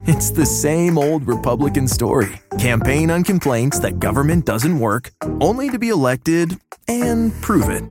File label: Political Party Attack Funny, political voiceover